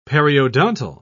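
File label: "periodontal", British English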